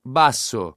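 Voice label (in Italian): "basso", Italian word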